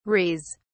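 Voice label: "res", British English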